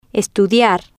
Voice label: "estudiar", spanish

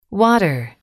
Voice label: "water", english

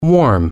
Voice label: warm

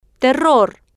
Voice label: "terror", spanish

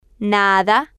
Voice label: "nada", Spanish

